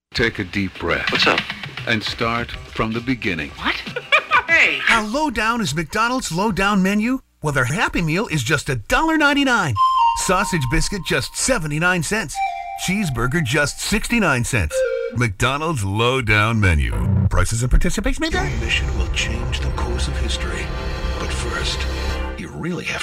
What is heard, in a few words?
commercial